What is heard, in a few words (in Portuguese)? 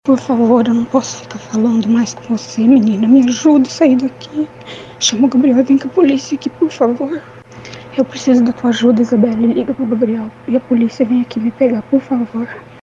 Pedido de socorro de mae ao filho apos agressoes de companheiro